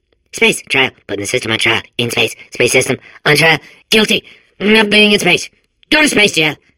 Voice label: babble; portal2